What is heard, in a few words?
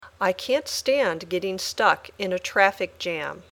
Dictation